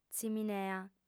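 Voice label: "tziminea", female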